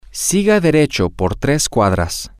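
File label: continue straight ahead for three blocks, Spanish, male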